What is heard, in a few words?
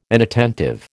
"inattentive", American